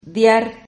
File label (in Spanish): "diar", Silabas